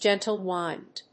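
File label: "gentle wind", English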